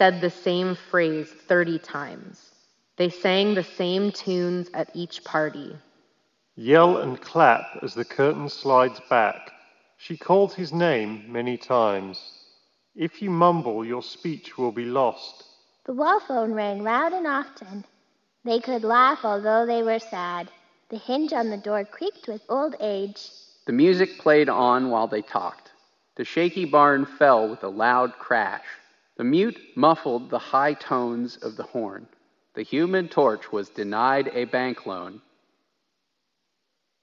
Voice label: Shure Aonic Free Reverb microphone sample

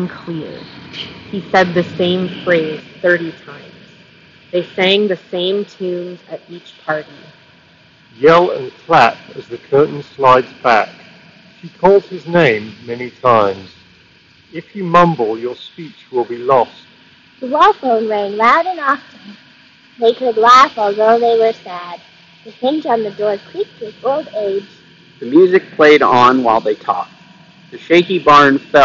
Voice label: Street microphone sample; Sennheiser Sport True Wireless

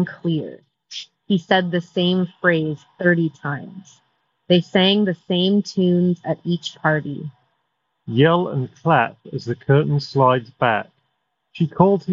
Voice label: Shokz Open Fit Street microphone sample

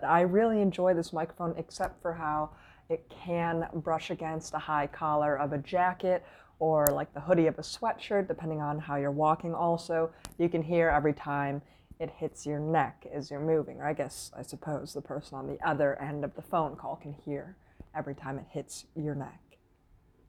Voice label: MEE audio Pinnacle PX mic; Massdrop

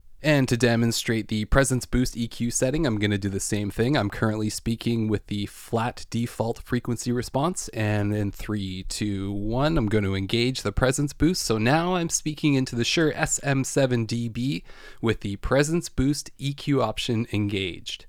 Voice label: presence boost; shure sm7db

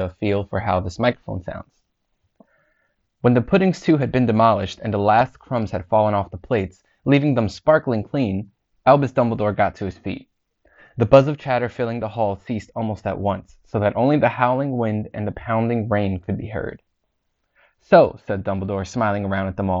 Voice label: 1More Dual Driver ANC mic sample

